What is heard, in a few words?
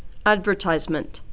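"advertisement", Canadian female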